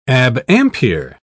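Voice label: "abampere", British English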